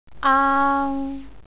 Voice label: "aang", Cantonese, jyutping

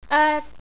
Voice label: "oet", Cantonese

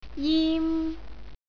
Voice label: "jim", Cantonese

